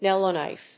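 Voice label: "yellowknife", English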